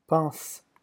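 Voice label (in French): "pense", French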